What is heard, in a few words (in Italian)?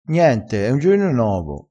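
"gioino", vocabolario dialettale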